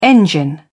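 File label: "engine", British English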